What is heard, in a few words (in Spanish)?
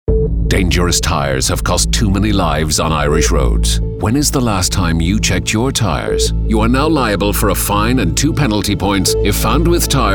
Masculino